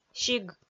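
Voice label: "сиг", Komi, female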